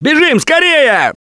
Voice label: yell run; Gambler